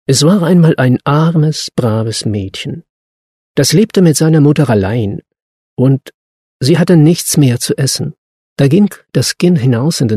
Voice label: german male